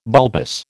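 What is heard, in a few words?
"bulbous", American English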